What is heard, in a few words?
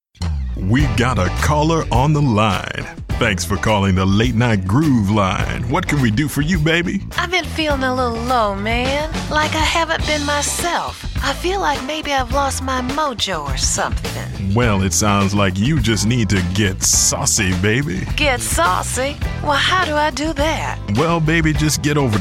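M EN US commercial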